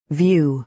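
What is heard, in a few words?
"view", American English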